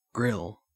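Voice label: "grill", Australian English; pronunciations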